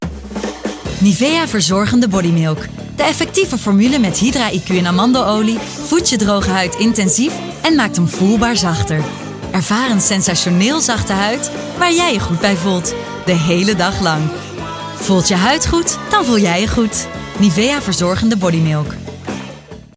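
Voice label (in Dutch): enthousiast, stem voice-over; stemkleuren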